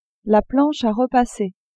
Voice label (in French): "planche a repasser", French; cuisine